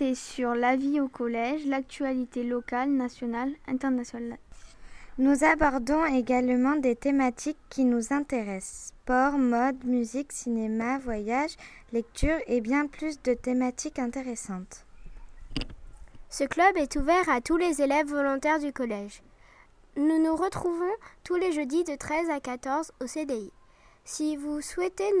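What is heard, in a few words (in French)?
présentation radio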